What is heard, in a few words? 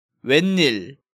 "Woenil", Korean